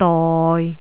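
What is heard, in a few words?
"doi6", Cantonese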